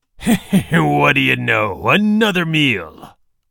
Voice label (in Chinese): 外籍男